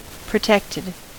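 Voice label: "protected", American English; pronunciations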